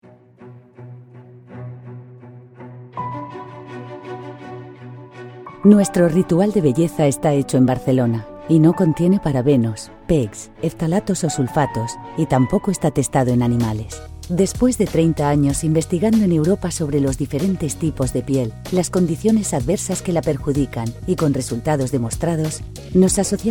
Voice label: Corporate

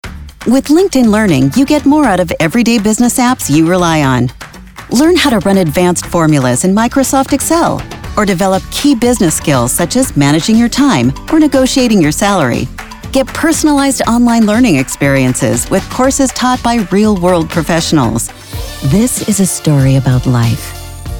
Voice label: Corporate Narration

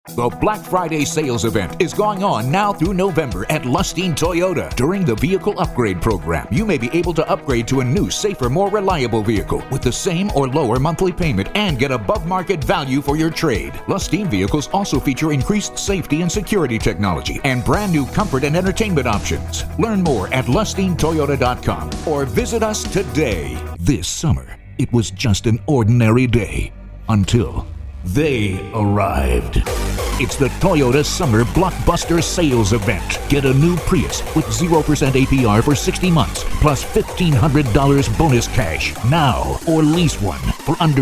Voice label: Car Dealer

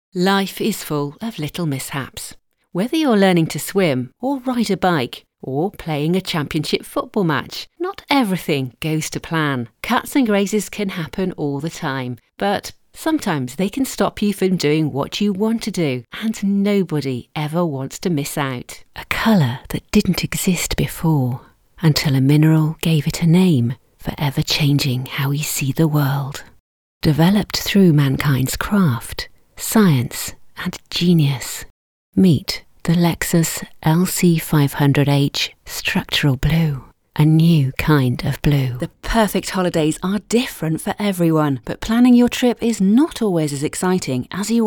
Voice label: Narration reel

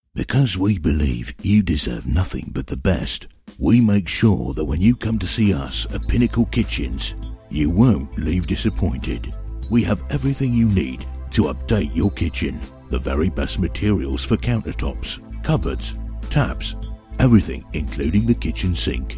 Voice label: london voice tv

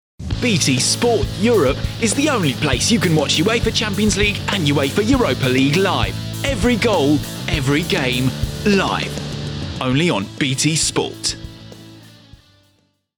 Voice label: ENG ad

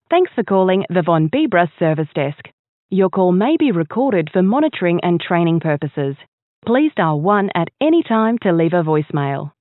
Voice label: IVR professional

